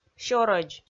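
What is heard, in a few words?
"сёрӧдз", Komi, female; kpv audio dict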